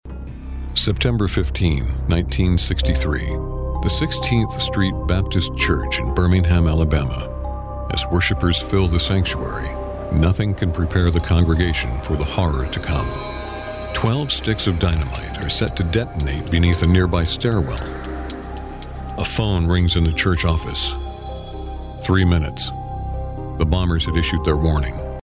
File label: Dramatic